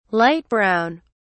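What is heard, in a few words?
"light brown", English, British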